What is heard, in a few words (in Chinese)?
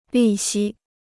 "利息", Chinese